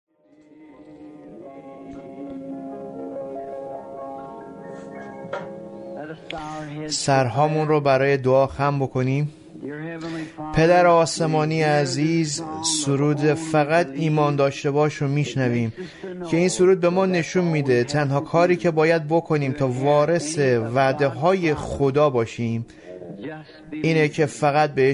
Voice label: Farsi Voice Over; Who Is This Melchisedec